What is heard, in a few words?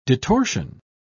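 "detorsion", British English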